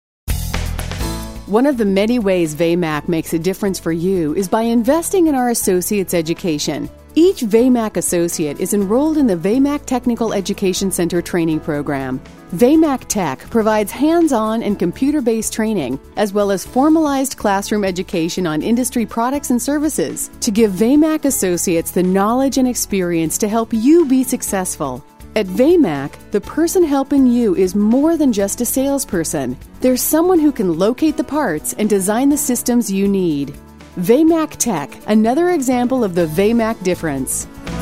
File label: On Hold Message; Vamac